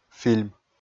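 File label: "фильм", Komi, male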